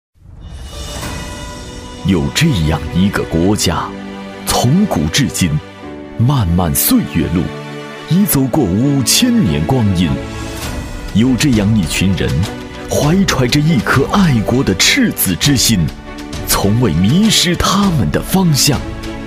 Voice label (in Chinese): 男声 宣传片—企业专题片—大气情怀 大气浑厚磁性 沉稳, 国语